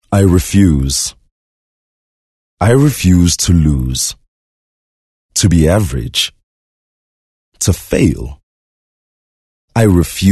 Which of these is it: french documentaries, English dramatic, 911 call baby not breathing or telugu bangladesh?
English dramatic